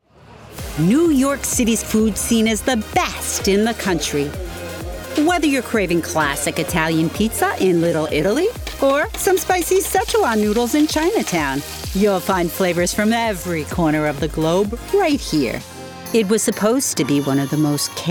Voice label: Narration